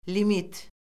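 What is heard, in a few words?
"limit", Azerbaijani; Orfoqrafiya Lüğəti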